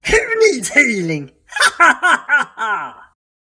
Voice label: npc orc mage dealer